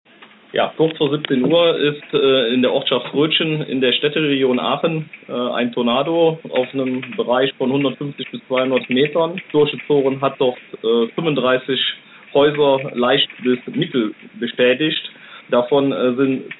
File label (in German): O-Ton Rettungskräfte Windhose; Roetgen